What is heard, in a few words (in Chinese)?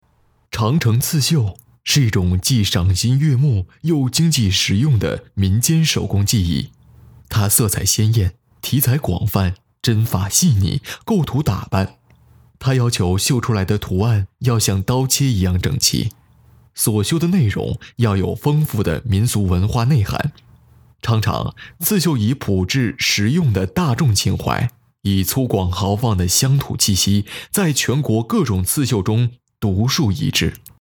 记录片